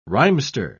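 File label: "rhymester", British English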